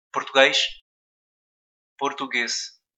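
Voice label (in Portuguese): "Portugues", Portuguese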